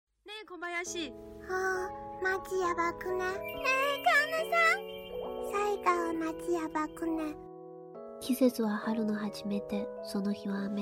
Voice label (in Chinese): 日配